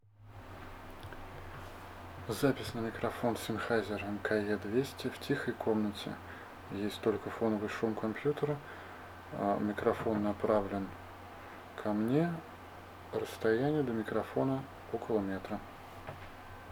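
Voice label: mke200 quiet meter, микрофон для камеры и смартфона; Sennheiser MKE 200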